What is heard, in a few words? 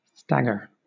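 "stagger", British English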